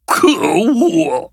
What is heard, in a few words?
defeat, zh-hant; Cookie Run